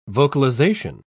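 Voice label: "vocalisation", British English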